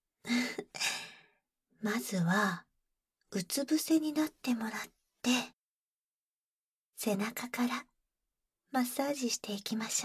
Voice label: ボディオイルリラクゼーション（バイノーラルマイク 効果音なし）

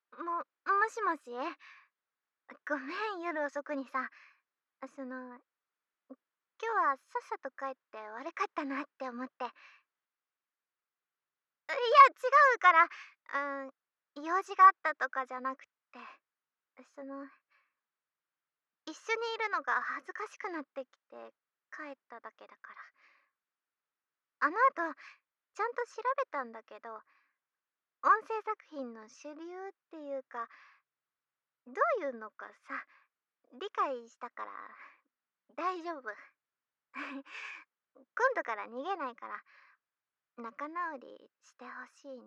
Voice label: さっきは逃げちゃってゴメン… (通話風)

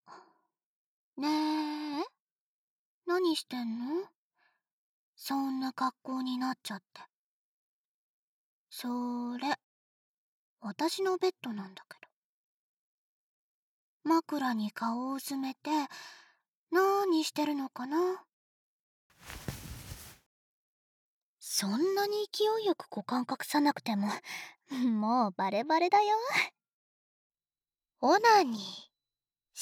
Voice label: 耳元囁きオナサポ 左右反転

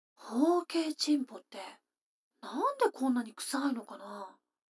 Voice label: 女の子台詞

